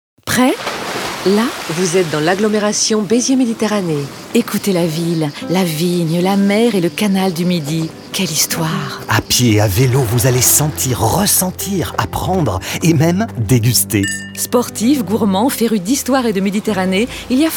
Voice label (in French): spot radio; beziers; fb herault